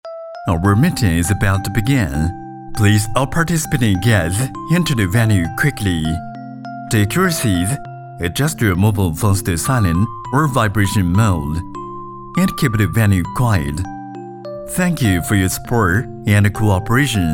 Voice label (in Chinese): 男 英文 会议 温馨提示